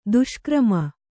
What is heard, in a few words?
"ದುಷ್ಕರ್ಮಿ", Kannada